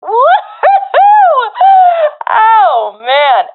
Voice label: round winning, professional fem; vo; Counter Strike Model Pack; CS:GO

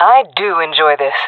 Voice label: round won, fem; player vo; Counter Strike Model Pack; CS:GO

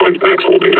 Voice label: injured; combine charger; Half Life Alyx

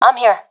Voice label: in position, professional fem; vo; Counter Strike Model Pack; CS:GO

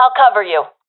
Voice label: covering friend, professional fem; vo; Counter Strike Model Pack; CS:GO

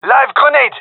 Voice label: throwing grenade, gendermerie male; vo

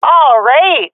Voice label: cheer, swat fem; vo; Counter Strike Model Pack; CS:GO

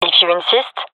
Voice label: agree, gendarmerie fem; vo; agents

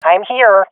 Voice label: in position, swat fem; vo; agents; Source Model Packs; Counter Strike Model Pack; CS2

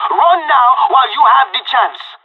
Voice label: on a roll brag, pirate

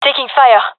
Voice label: pinned, gendarmerie fem epic; vo; agents

